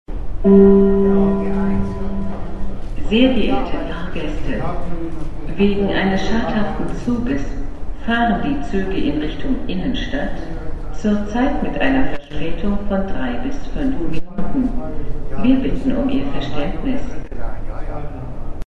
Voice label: announcement subway delayed